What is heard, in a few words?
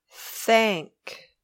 "thank", English